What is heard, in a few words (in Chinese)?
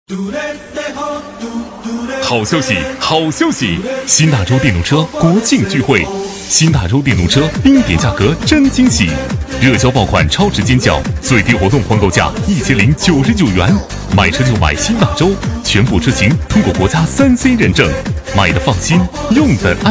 男 促销 电动车, 促销男配; 新大洲电动车